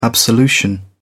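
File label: "absolution", British English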